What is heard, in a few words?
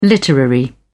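"literary", British English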